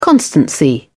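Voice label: "constancy", English, British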